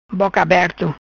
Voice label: "Boca Aberto", Macanese; boquiaberto, pasmado; open-mouthed, amazed